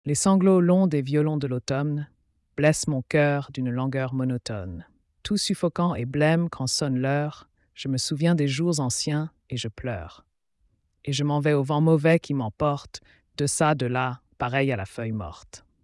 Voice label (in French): Synthese Vocale; ChatGPT